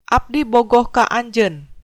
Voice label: i love you, sundanese